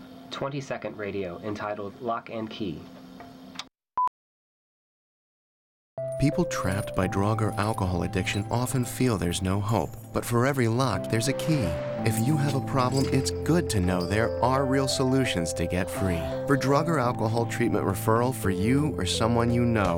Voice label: radio; recoverymonth

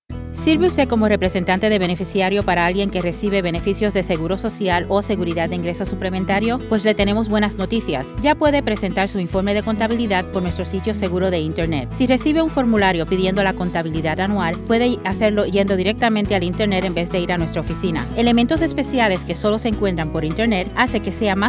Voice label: payee esp

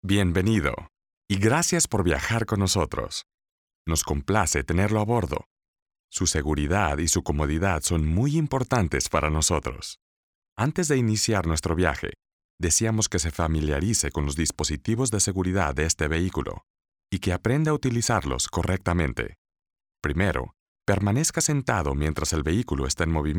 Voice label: Passenger Safety Instructions sp; bus

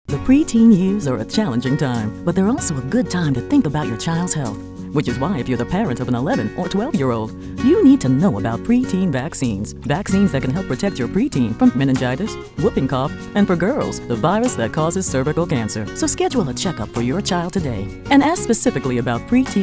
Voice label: Radio English Urban; vaccines; preteens-adol; PSAs

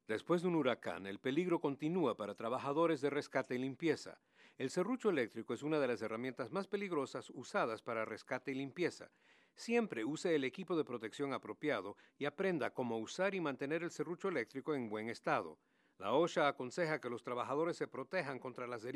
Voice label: chainsaws spanish

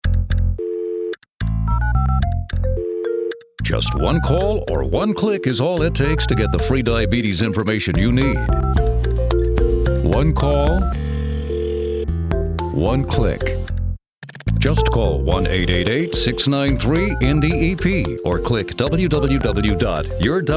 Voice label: Radio

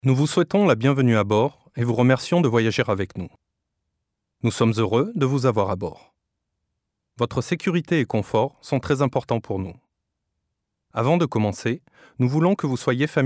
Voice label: Passenger Safety Instructions french; bus

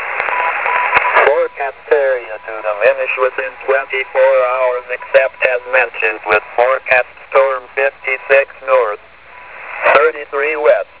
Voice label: hf voice; marine

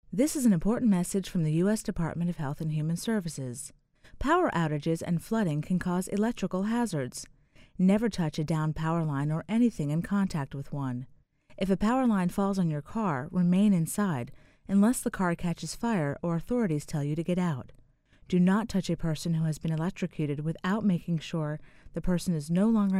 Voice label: psa hurricane electrical safety female voice

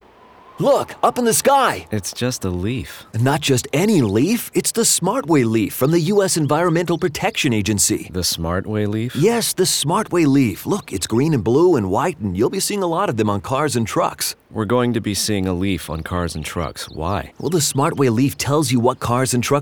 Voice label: radio; smartway; leaf acorn

